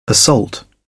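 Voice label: "assault", British English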